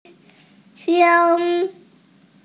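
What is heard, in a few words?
"yi1sheng1", Mandarin Chinese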